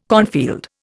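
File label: "cornfield", Indian English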